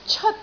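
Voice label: "ceot1", Cantonese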